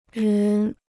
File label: "人", Chinese; 人 (rén): person; people